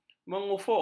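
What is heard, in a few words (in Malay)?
"mengufuk", Malay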